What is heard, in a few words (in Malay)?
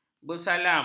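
"bersalam", Malay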